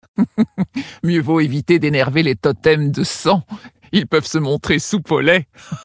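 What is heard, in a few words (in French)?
Female Blague, voix françaises; Tauren Haut Roc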